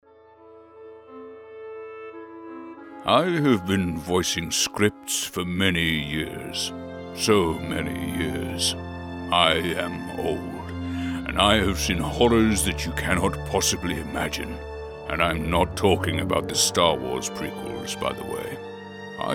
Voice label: BRITISH MALE SENIOR TRAILER GUY

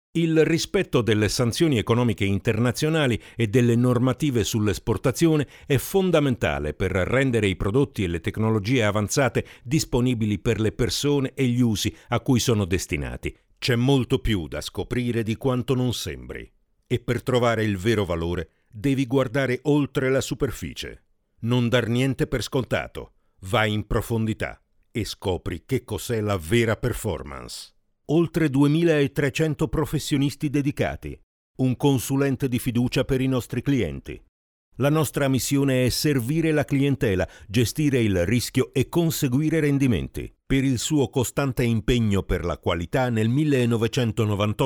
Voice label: corporate

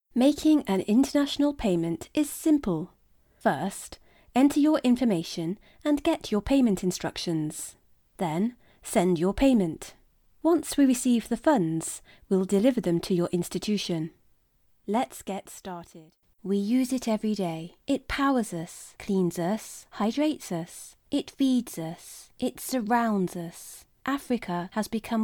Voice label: Explainer

